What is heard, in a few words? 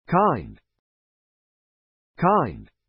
"kind", English